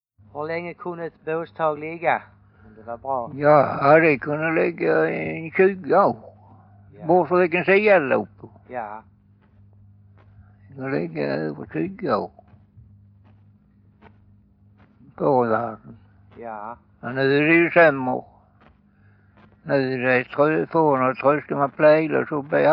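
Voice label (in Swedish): Färingtofta, Swedish dialect; Folkminnen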